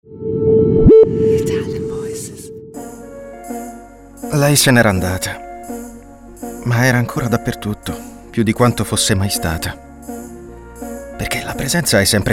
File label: ITALIAN VOICES, male voices; Naked Reel